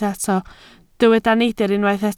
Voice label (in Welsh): "neidr", Welsh